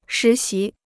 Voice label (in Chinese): "实习", Chinese